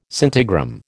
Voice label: "scintigram", American